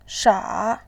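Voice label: "sha", Mandarin Chinese, third tone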